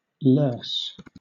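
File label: "loess", British English; pronunciations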